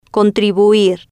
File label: "contribuir", Spanish